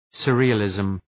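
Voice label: "surrealism", English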